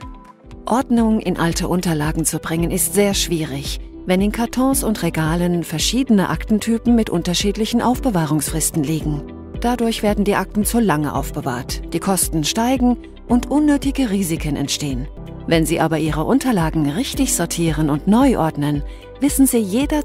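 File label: German, female, German Explainer; Smartsort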